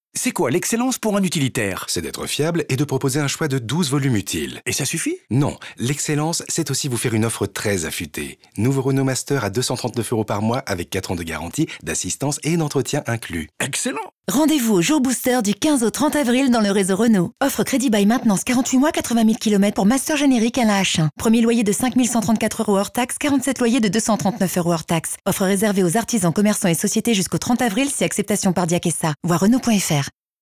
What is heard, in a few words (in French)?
V Off et M. Legale; Traffic Renault